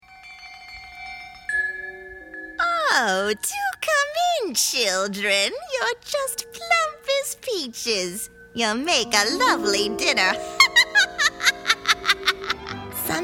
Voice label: Character Voices